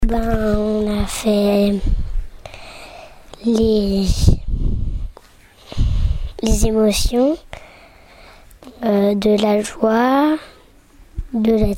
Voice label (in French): témoignage enfant